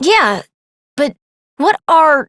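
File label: Voice, English; New War; Operator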